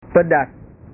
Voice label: "pedas", bahasa